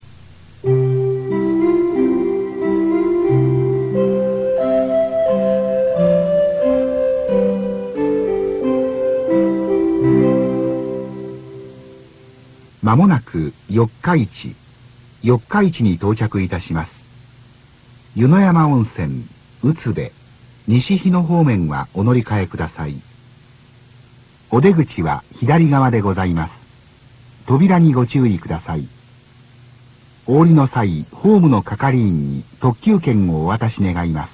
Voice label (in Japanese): 到着前放送; 四日市到着前放送; 名古屋→賢島